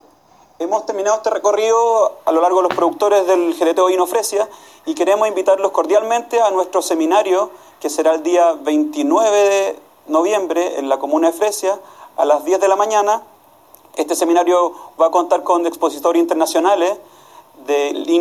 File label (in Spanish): Cuña